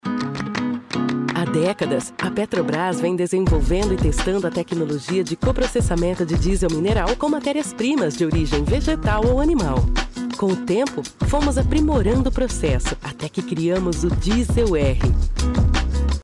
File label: female brazilian portuguese corporate